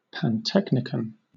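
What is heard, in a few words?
"pantechnicon", British English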